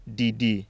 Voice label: ewe tone; ddiddi

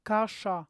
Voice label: voiceless flat postalveolar medial, polish

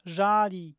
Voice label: voiced flat postalveolar initial, polish

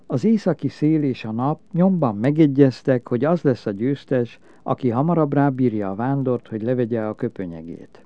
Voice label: narrative, hungarian

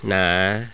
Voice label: low falling rising, thai tones

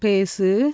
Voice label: speak imp, tamil; JIPA

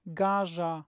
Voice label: voiced flat postalveolar medial, polish

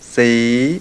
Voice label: low rising modal, mpi

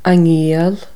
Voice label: "their hostage", Irish

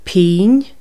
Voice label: "penny", Irish

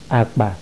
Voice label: low low, ibibio

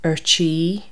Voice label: "about to", Irish

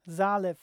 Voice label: voiced alveolar initial, polish

